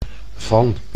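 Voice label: "van", Dutch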